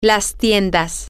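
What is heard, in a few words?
female, spanish; the Stores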